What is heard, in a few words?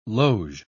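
"loge", British English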